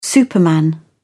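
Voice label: "superman", English, British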